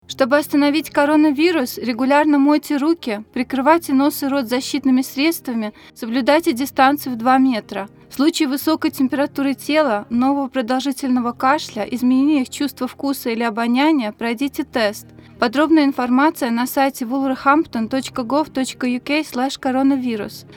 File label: Russian